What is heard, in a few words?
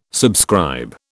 "subscribe", British